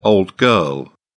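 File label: "old girl", British English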